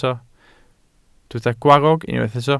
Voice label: "gwarrog", Welsh

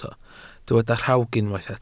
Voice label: "rhawg", Welsh

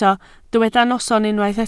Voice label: "noson", Welsh

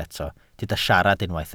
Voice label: "siarad", Welsh

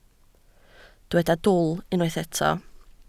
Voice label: "dwl", Welsh

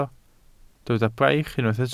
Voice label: "braich", Welsh

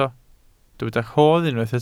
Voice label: "rodd", Welsh

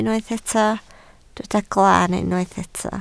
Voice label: "glaan", Welsh

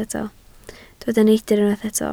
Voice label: "neidr", Welsh

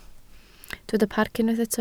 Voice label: "parc", Welsh

